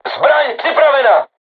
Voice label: voice message art charged, crew tanks czech